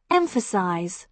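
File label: "emphasize", British English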